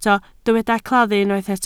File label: "claddu", Welsh